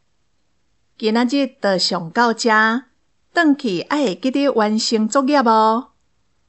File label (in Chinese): 課室生活用語 (台語)